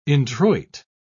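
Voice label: "introit", English, uk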